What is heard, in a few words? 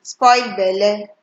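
"spoil belle", Nigerian Pidgin, female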